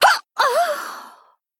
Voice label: Female Human Death